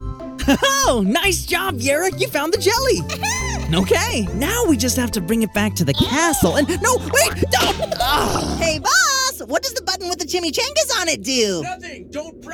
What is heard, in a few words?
Animation